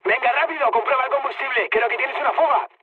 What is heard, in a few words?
tank damaged, mood high, speech; War Thunder